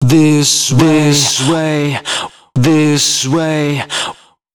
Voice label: male d#130, spoken hooks